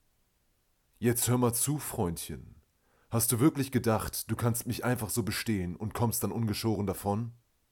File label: German Sample